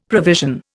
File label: "provision", Indian; Dictionary